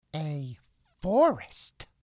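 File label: "forest", English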